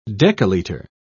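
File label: "decalitre", English, British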